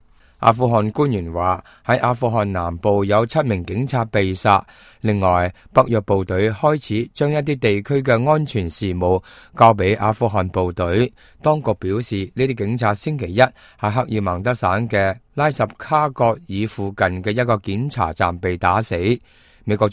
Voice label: cantonese, news